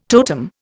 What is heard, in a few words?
"totem", Indian